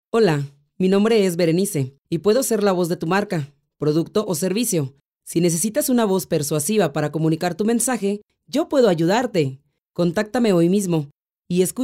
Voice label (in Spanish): locutora